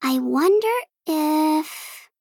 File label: Female Gnome Thinking